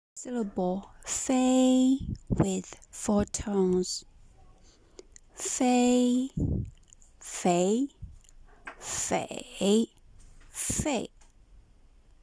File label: fei with 4 tones, Mandarin